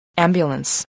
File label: "ambulance", English